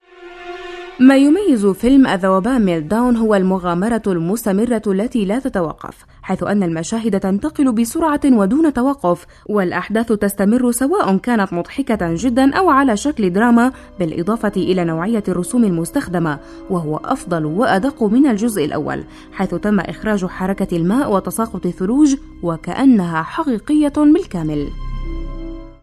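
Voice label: Arabic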